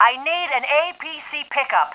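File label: female need pickup; Tribes Demo; Starsiege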